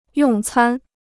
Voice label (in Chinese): "用餐", Chinese